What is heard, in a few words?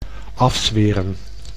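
"afzweren", Dutch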